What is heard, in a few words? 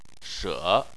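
"she", Mandarin Chinese, third tone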